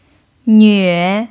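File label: "nve3", Chinese; pinyin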